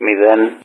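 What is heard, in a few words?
"0", Greek; Wingman; Digits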